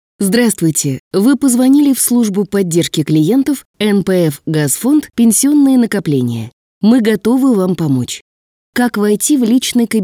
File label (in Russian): avtootvetchik